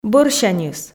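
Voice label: "бӧрсяньыс", Komi, female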